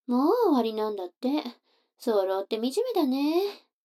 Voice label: 女の子台詞

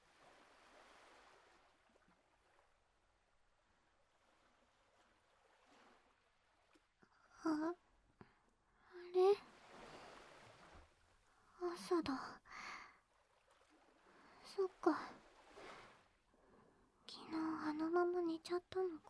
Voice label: おやすみ授乳手こき(朝チュン)【BGMなし】, ASMR

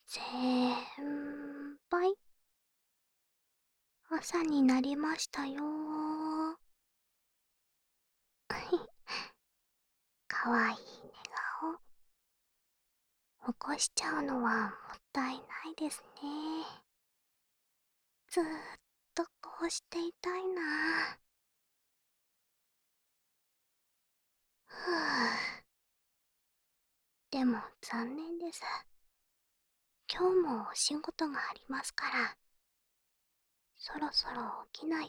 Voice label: 目覚ましの囁きです, ASMR

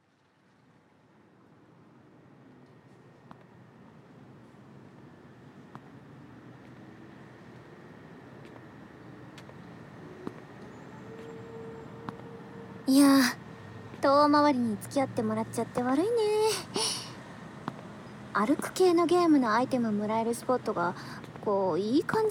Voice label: コンビニでだらっと買い出し, ASMR

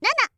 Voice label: "ナナ", カウント

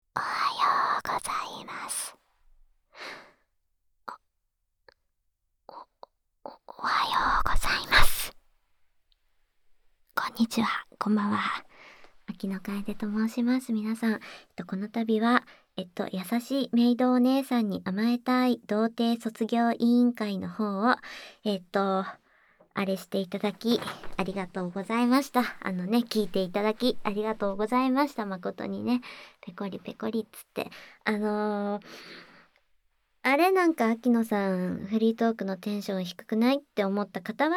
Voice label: 優しいメイドお姉さん_フリートーク

